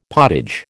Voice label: "potage", American